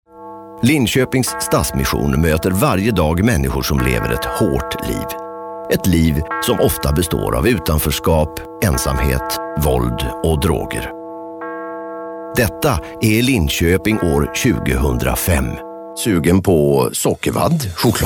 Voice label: SWE MALE Comm